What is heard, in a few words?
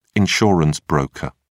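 "insurance broker", British English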